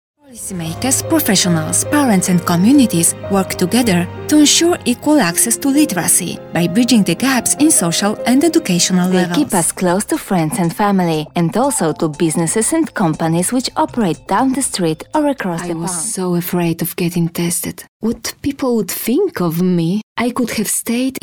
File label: english commercial